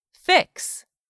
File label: "fix", English; 3000 words